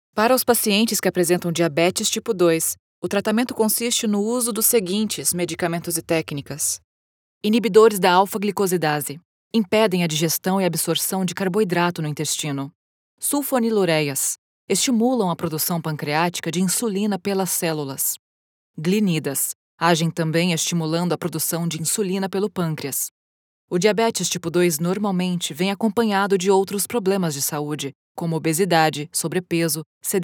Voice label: medical healthcare technical reading informative authority BRAZILIAN; GOVERNMENT